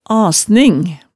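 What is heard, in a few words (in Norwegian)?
"asning", Hemsedal dialect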